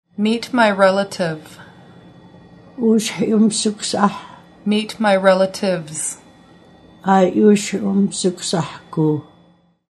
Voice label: Meet My Relatives, Nuu-chah-nulth language phrases